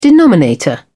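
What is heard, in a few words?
"denominator", British English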